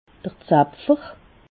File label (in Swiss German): "zapfer", Alsace